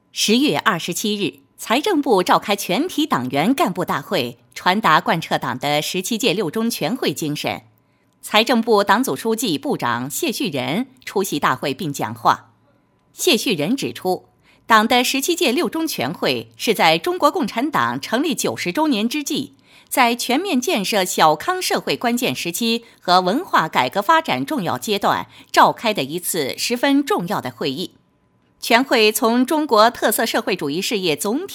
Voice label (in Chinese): 女 财政部样, 国语女声配音; 全能配音